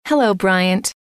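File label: hello, female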